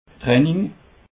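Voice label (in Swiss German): "training", Alsatian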